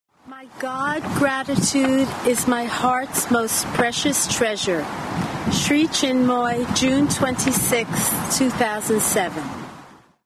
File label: poem